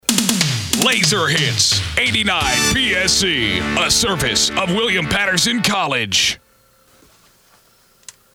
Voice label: SWEEPER; Service Of WPC

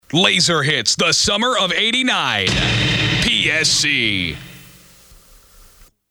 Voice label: SWEEPER; Summer Of 89

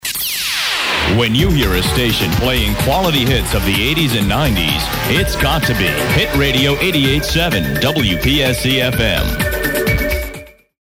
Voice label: SWEEPER Quality 80s 90s

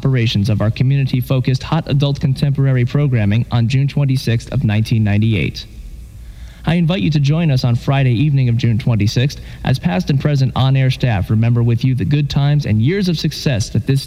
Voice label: PROMO End Of Hit Radio

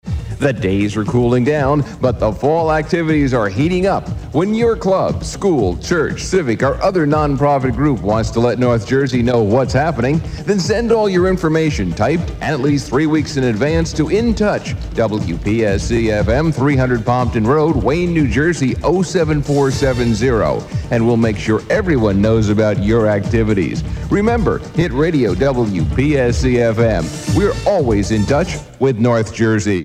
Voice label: PROMO

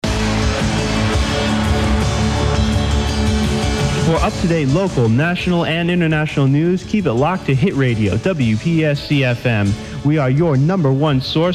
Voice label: PROMO Local News; WPSC FM Local News

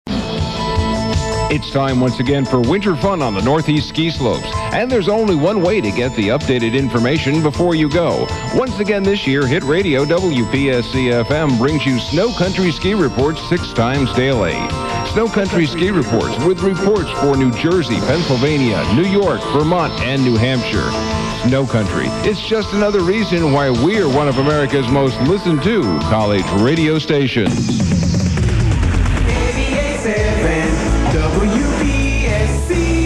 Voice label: PROMO; Sno Country

